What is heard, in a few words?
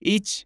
Japanese Number